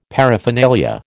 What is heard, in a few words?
"paraphernalia", American